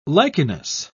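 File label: "lichenous", British English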